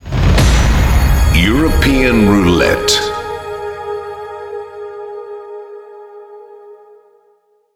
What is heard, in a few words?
roulette announce; IMAX A Live Roulette Compact